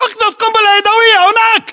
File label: order action grenade, battle chatter, english